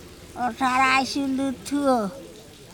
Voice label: "oTHaraSulutHuo", andamanese; elder brother